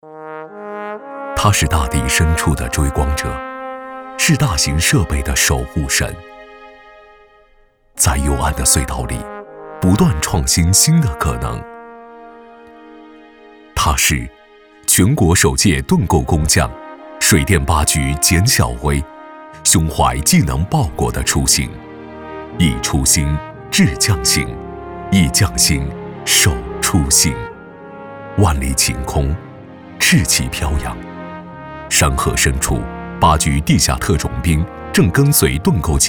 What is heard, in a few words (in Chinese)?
男 纪录片 极 简 匠心